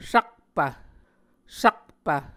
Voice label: "sakpv", Muscogee

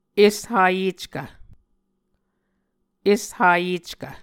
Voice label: "eshayeckv", Muscogee

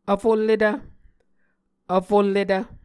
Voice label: "vfulletv", Muscogee

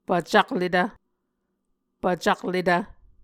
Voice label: "pvcakletv", Muscogee

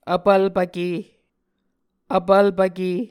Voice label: "vpvlpvke", muscogee

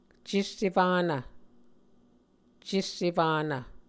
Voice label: "cessefanv", Muscogee

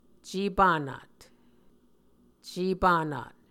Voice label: "cepanat", Muscogee

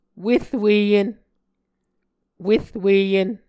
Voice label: "werweyen", Muscogee

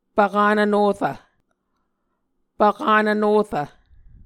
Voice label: "pvkanv norv", Muscogee

